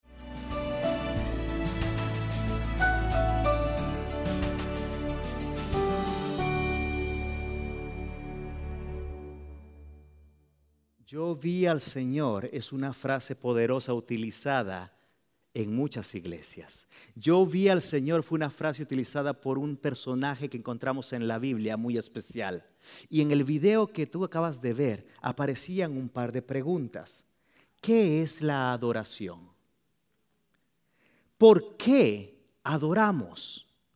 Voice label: Spanish Sermon